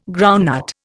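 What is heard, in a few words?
"groundnut", Indian English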